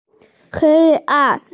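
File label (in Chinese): "hei1an4", Mandarin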